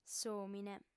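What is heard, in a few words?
"s'òmine", female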